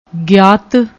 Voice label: "giaat", Punjabi; ਗਿਆਤ